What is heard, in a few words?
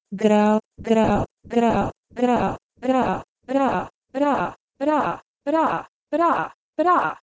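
Proto-Indo-European gwreh2 to Gaelic bra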